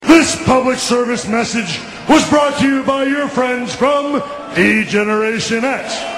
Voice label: Anouncment

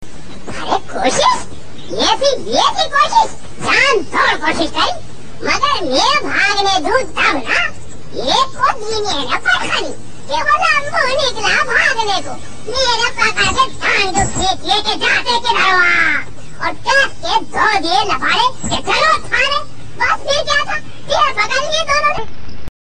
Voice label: Child Dilog

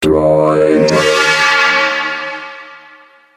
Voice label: Motorola Droid Voice

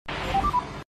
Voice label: Team Radio; F1

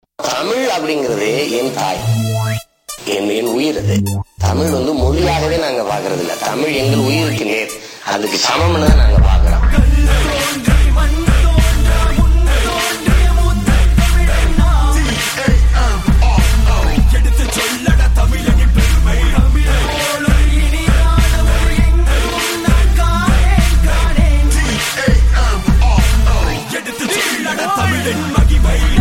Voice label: Tamil

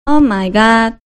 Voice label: Omg Female; Gunbound

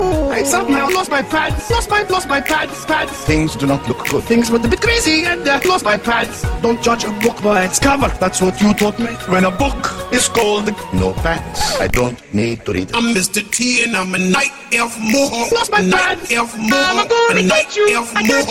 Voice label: Autotune

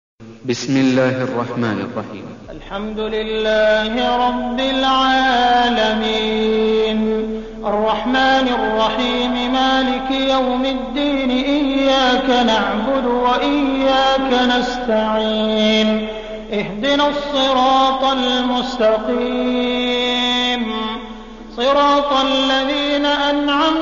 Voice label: Quran Sura-e-fatha

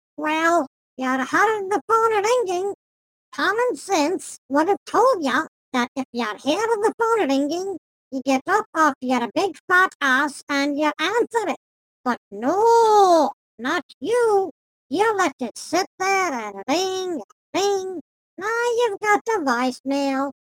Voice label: Sarcastic Voicemail

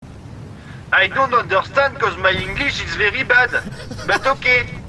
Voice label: English very bad

